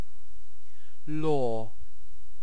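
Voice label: "lore", British English; pronunciations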